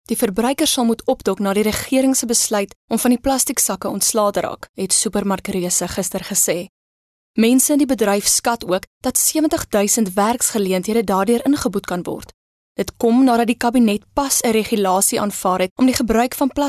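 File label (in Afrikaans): Corporate Afrikaans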